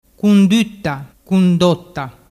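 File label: "cunduttacundotta", Ligurian dialect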